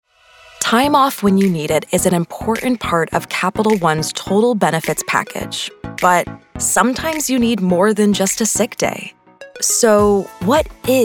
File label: Corporate Narration, voiceover